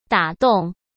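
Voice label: "打动", Mandarin Chinese; chinese-flashcards; hsk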